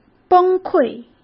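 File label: "崩溃" Mandarin Chinese; chinese-flashcards; hsk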